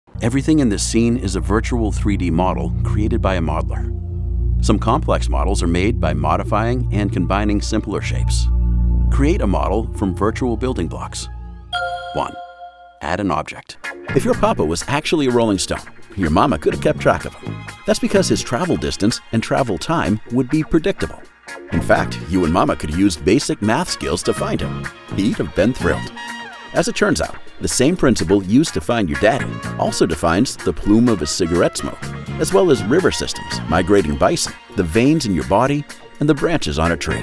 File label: E-learning and Instructional Narration